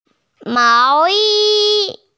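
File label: "mao yi", Mandarin Chinese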